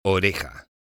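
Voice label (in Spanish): "oreja", Spanish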